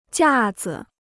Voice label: "架子", Chinese

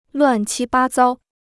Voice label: "乱七八糟", Chinese

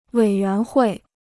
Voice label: "委员会", Chinese

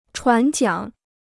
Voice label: "船桨", Chinese; chuán jiǎng; oar

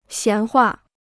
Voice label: "闲话", Chinese

